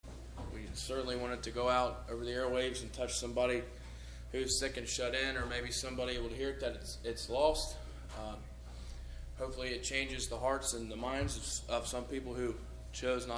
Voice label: Broadcast Prayer